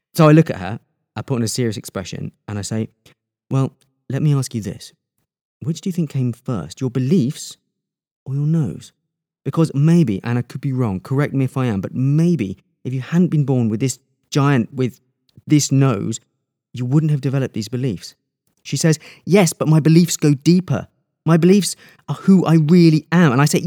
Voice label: Dramatic Voicereel, Actor